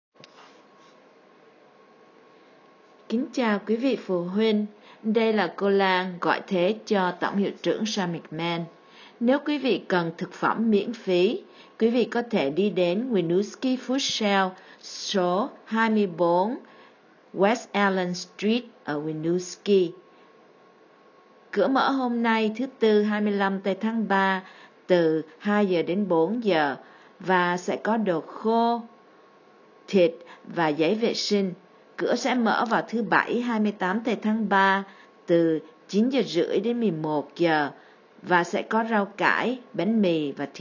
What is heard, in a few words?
vietnamese; Food-shelf